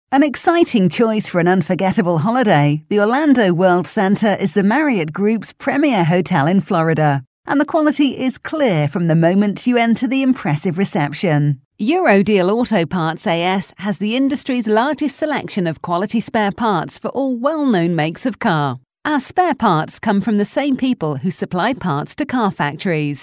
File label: British F, British English